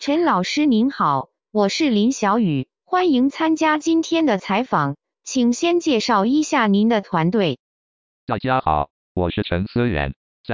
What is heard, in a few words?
mandarin interview; chinese